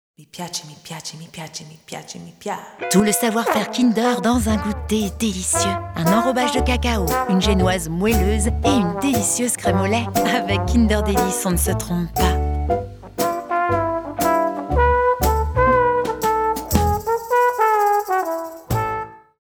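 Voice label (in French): maman naturelle, comédienne voix off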